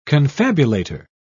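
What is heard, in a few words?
"confabulator", British English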